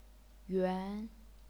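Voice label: "yuan", chinese pinyin, second tone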